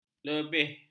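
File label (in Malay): "lebih", Malay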